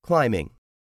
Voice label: "CLIMBING", English; 3000 words

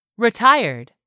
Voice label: "retired", english; 3000 words

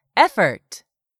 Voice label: "EFFORT", English; 3000 words

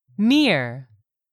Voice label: "mere", English; 3000 words

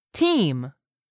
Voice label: "team", English; 3000 words

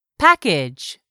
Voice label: "package", English; 3000 words

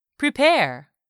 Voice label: "prepare", English; 3000 words

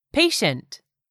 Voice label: "PATIENT", English; 3000 words

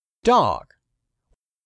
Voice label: "DOG", English; 3000 words

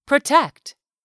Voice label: "protect", English; 3000 words

